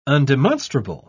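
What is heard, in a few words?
"undemonstrable", British English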